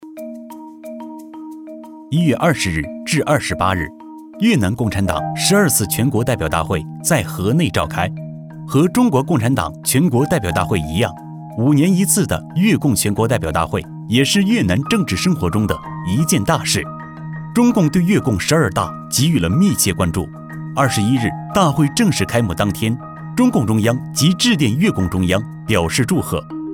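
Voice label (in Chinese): 【新闻】男; 越南十二大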